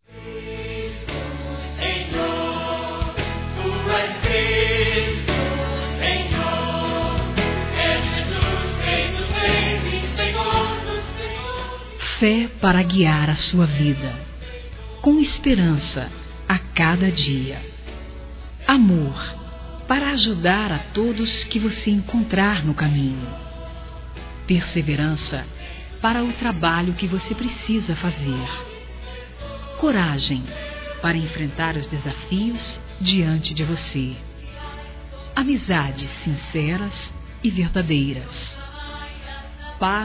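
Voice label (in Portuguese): EVANGÉLICO GERAL, feminino; Telemensagens; Telemensagens 2017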